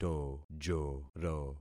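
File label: "tojoro", concatenated syllables; Affixing experiment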